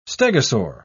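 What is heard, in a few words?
"stegosaur", British English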